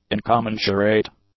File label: "incommensurate", English; adjectives